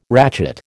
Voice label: "ratchet", American